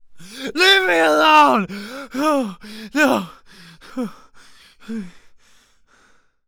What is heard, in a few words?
Panic; zps; Humans; HumanMale-01